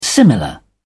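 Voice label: "similar", British English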